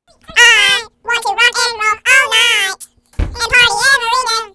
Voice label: idiot chipmunk voice